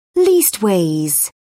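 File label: "leastways", English, British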